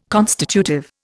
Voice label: "constitutive", Indian